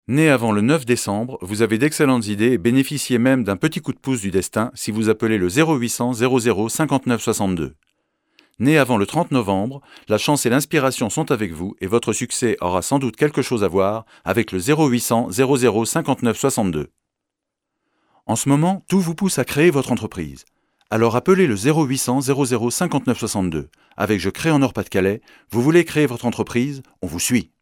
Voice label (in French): Pub Radio